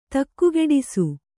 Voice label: "ತಕ್ಕುಗೆಡಿಸು", Kannada